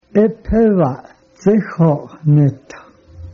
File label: hello cheyenne